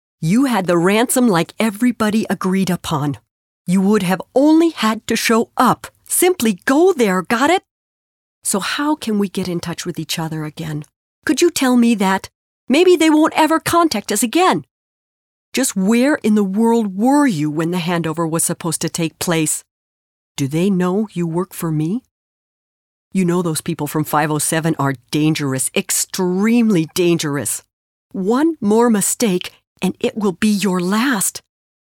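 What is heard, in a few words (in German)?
English US Schauspiel Dynamisch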